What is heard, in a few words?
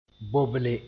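"boble", Mooré; entrust somebody with something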